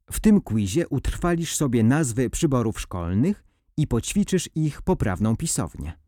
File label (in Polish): przybory poprawna wymowa